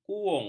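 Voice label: "kuwung", Malay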